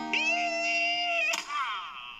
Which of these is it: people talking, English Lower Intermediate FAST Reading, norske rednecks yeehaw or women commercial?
norske rednecks yeehaw